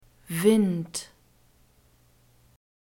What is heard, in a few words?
"wind", German; nouns gender masculine